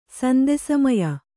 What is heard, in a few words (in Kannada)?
"ಸಂದೆಸಮಯ", kannada